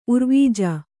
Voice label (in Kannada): "ಉರ್ವಿಜ", Kannada